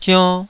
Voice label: "zionn2", taiwanese